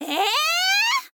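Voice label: "ee", girl, Japanese; voice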